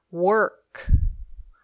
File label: "work", English